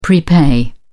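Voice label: "prepay", British English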